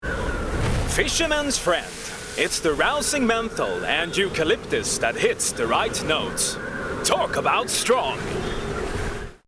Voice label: Voice Over Commercial English with Swedish Accent; Fishermans Friend